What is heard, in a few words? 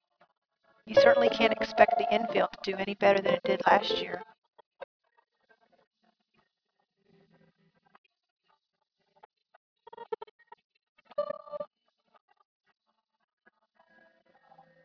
mix mus sp F